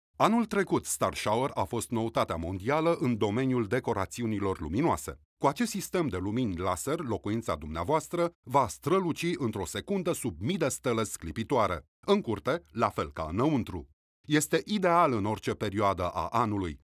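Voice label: romanian male commercial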